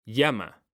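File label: "llama", Spanish, male; mekanta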